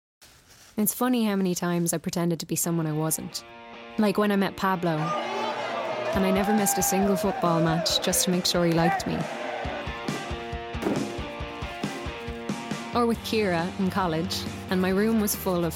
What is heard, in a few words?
COMMERCIAL